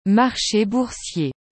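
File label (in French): "marche boursier", French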